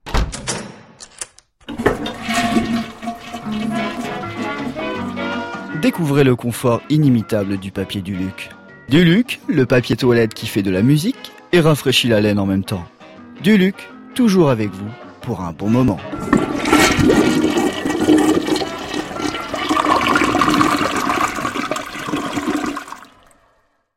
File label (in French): fausse pub; papier duluc